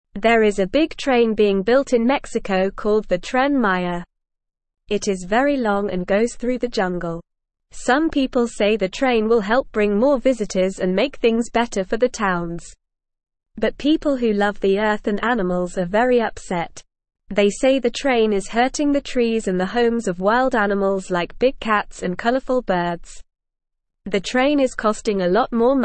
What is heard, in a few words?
English Newsroom Beginner NORMAL Reading